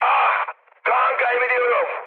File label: damaged pilot, mood high, speech tur; War Thunder